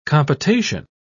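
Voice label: "compotation", English, British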